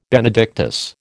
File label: "Benedictus", American